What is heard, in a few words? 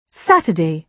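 "Saturday", British English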